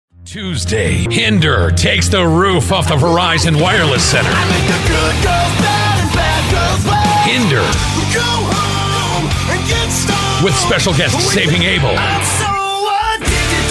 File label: english american; Soy un actor de doblaje de inglés estadounidense